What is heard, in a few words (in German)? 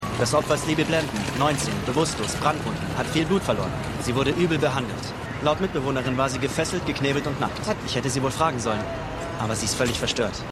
Polizist, Stimmproben; LO SVU